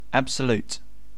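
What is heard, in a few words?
"absolute", British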